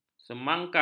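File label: "semangka", Malay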